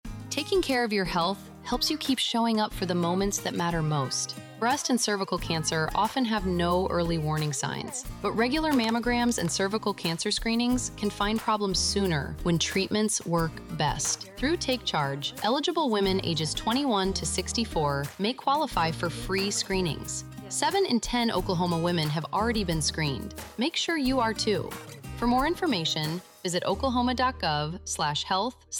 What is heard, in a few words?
PSA Radio English; OSDH; Take Charge